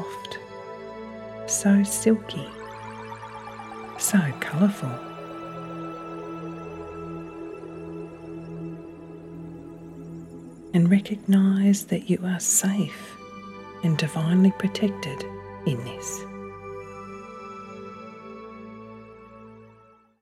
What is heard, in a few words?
Rainbow Cleansing Meditation